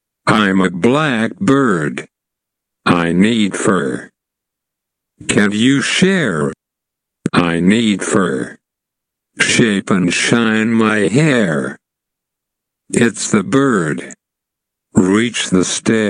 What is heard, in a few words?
Slow